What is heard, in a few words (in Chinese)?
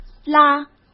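"拉" Mandarin Chinese; chinese-flashcards; hsk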